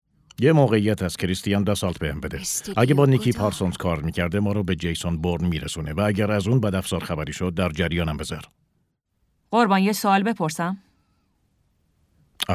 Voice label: dub